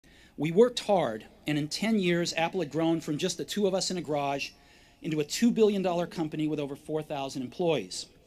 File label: Commencement Address; Steve Jobs; 2005; Stanford